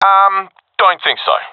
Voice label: negative, professional; vo; Counter Strike Model Pack; CS:GO